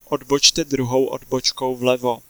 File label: 2nd Left, Czech, male